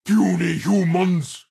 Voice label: Warmonger Barrack Taunt

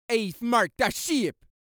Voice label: Voices Jamaican I've Marked The Ship

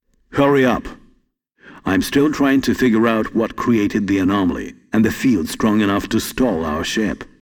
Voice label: Voice Dialog; we are the dwarves gamerip 2016

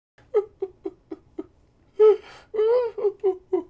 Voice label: Voices Female Idle

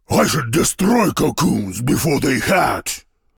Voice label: Voice Monolog; We Are the Dwarves

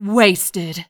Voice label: "Wasted", Voices

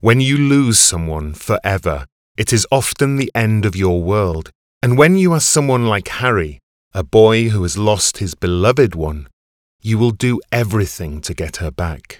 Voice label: Voices Intro

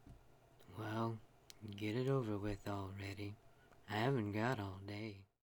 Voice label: Voices Female Beggings

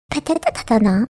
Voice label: Female

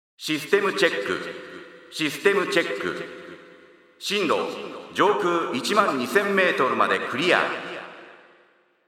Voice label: Operator Voice; astro boy mighty atom game soundtrack score

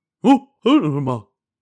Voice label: Concerned

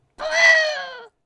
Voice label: Voices Female

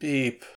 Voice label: Voices sad; The Looker

